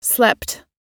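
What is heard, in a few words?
"slept", American English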